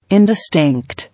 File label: "Indistinct", English